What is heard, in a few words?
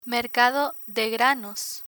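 "mercado de granos", Spanish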